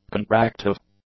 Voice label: "contractive", English; adjectives

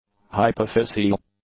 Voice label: "hypophyseal", English; adjectives

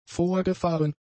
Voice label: "vorgefahren", German; adjectives

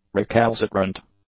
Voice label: "recalcitrant", English; adjectives

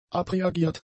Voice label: "abreagiert", German; adjectives

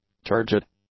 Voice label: "turgid", English; adjectives

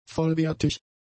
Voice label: "vollwertig", German; adjectives

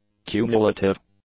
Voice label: "cumulative", English; adjectives

